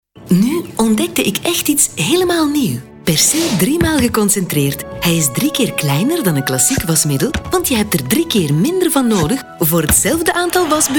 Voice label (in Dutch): neutraal; neutral; Persil